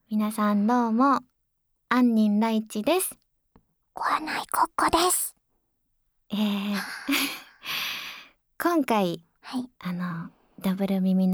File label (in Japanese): フリートーク音声